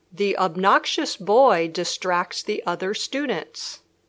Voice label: obnoxious sentence